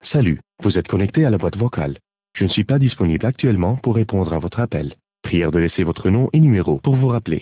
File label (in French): default fr, user greet; Samsung GT-E1500 Pusha 2SIM